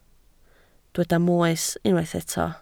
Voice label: "moes", Welsh